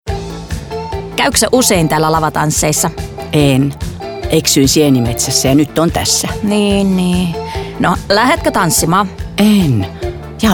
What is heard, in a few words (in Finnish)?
radio; karhu; lavatanssit; metsa